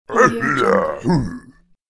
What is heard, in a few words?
caveman stubborn not agree; cartoon